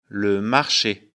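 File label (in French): "marche", French; afrikalan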